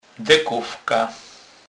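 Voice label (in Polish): "dekowka", Kurpie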